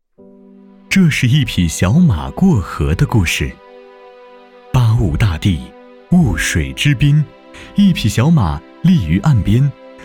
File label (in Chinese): 男 专题